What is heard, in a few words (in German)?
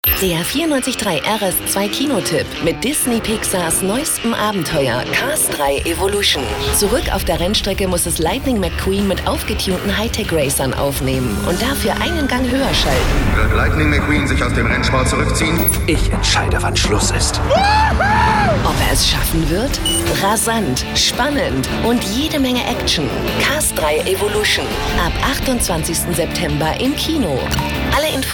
Stationvoice Kinowerbung; RS2